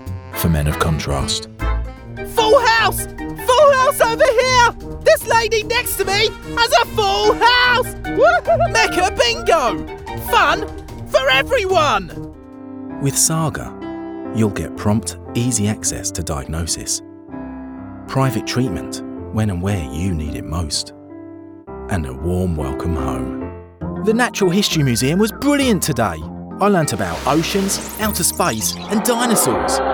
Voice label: commercial reel